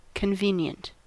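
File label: "convenient", US English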